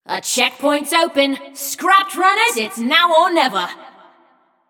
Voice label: Checkpoint Opened, Announcer; Flux; Scraprun

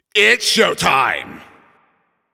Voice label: Game Start, Announcer

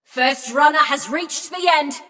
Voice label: Scrap Run Runner Reached End, Announcer; Flux

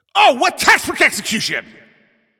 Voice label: Announcer Especially Good Shot

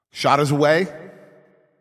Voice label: Shot On Goal, Announcer

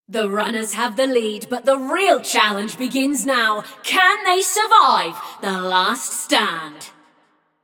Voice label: Runners Lead, Announcer; Flux; Scraprun

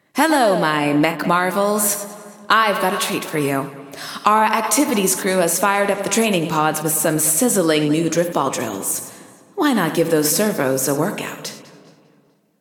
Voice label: Announcing New Challenges; Driftball; GAIA

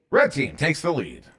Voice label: Team Takes Lead Red 2 Flags, CTF Announcer

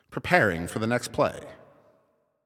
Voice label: Announcer Waiting For Players After Score

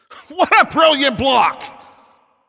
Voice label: Announcer Goalie Save

